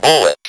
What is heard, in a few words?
"BULLET", Speak & Spell